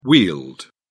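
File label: "wheeled", British English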